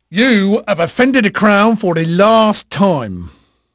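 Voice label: English male, voice; Pirates Of The Caribbean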